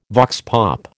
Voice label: "vox pop", American